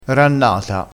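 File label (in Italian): "rannata", vocabolario dialettale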